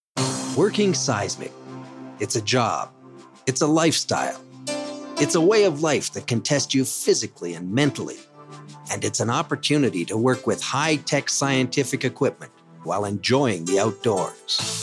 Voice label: Narration